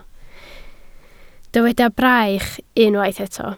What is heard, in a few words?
"braich", Welsh